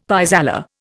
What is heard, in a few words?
"pis aller", Indian; Dictionary